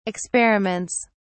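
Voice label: "experiments", English, British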